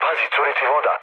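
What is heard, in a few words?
Serbian damaged water mood low, speech; War Thunder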